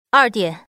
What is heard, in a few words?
woman lose; longhudazhan